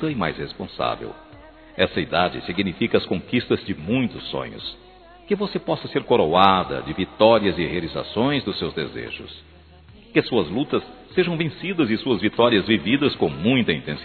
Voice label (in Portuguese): Aniversário 15 anos Masculino, Telemensagens; Telemensagens 2017; Aniversários